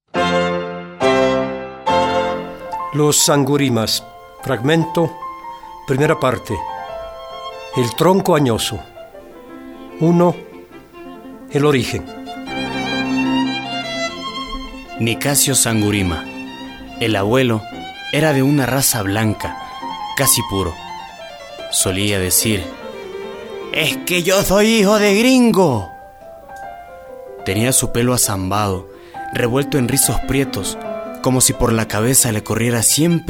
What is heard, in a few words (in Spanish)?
narrativa; literatura hispanoamericana; José Martí